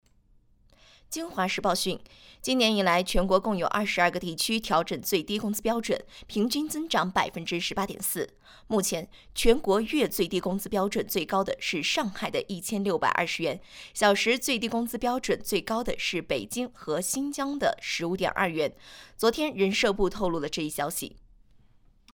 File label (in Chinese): 新闻类